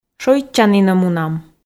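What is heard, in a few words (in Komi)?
шойччанінӧ мунам, Komi, female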